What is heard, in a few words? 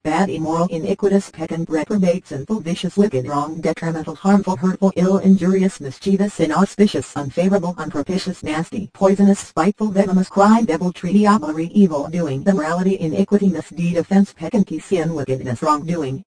random computer words; Robotic or Stuttery or Spooky